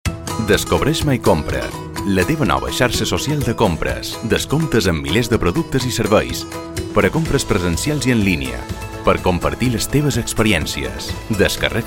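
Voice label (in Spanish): hombre catalán; mycompra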